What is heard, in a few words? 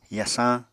"yasañ", Apatani